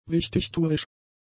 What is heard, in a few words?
"wichtigtuerisch", German; adjectives